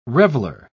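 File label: "reveler", British English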